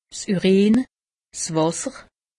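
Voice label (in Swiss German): "urin", Alsatian; medecine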